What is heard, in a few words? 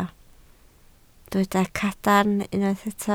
"cadarn", Welsh